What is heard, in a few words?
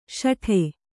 "ಸಟ್ಟಾ", kannada